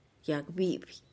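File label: "yag bipih", tree for pipe, Tlacochahuaya Zapotec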